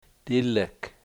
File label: "duck", Washo; dilek